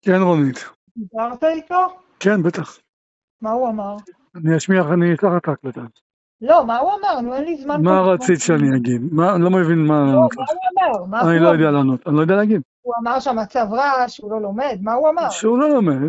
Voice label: Call recording